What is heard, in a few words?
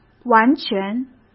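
"完全", Mandarin Chinese; chinese flashcards; hsk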